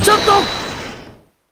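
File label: "chotto", Japanese; ryu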